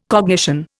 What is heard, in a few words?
"cognition", Indian